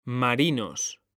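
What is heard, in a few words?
"marinos", Spanish, male; mekanta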